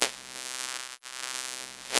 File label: ljspeech melgan large